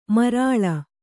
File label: "ಮಾರುಳಿ", Kannada